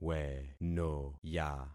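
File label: "wenoya", concatenated syllables; Affixing experiment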